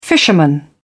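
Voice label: "fisherman", British English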